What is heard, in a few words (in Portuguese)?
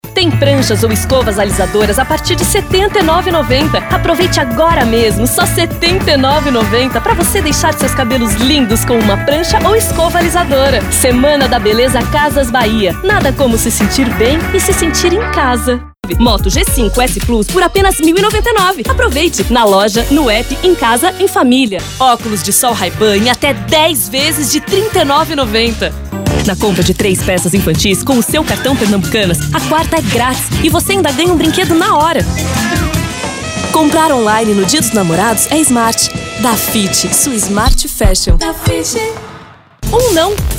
locução varejo